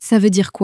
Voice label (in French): ça veut dire quoi, common french words